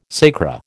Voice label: "sacra", American